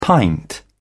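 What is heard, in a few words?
"pint", British English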